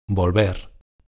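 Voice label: "volver" Spanish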